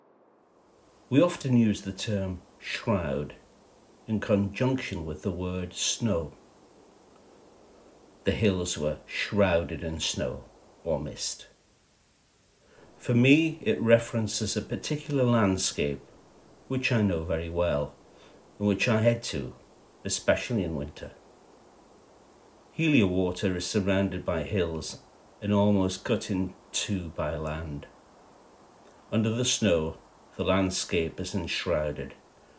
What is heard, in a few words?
audio voiceover